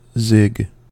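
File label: "zig", US English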